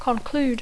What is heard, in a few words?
"conclude", English